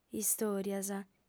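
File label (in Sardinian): "istòrias", Sardinian, female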